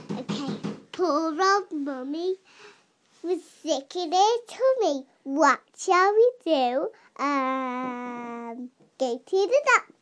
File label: smallgirl s poem poor old mummy